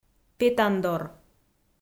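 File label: "петандор", Komi, female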